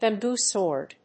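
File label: "bamboo-sword", English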